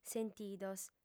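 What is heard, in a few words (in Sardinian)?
"sentidos", female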